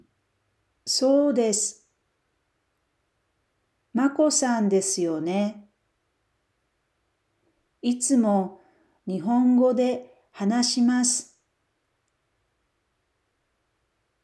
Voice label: japanese Dialogue